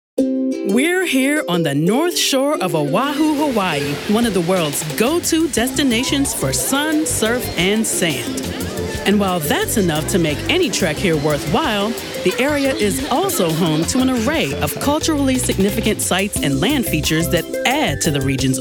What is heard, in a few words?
Narration